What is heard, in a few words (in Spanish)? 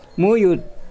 Nawat palabras mosquito; pipil